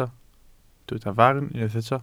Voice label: "farn", welsh